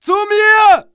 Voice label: ger backup, german